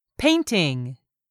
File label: "PAINTING", English; 3000 words